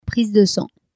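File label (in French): "prise de sang", French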